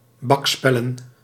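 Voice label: "bakspellen", Dutch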